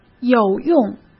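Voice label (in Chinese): "有用" Mandarin Chinese; hsk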